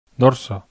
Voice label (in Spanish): vocal o dorso, bizkaia masculino